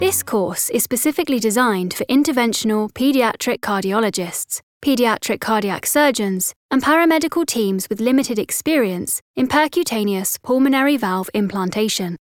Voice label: english british medical narration